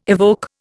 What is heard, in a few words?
"evoke", Indian